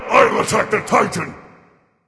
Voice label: vox self attack m; smite; anubis